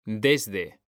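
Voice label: "desde", Spanish, male; mekanta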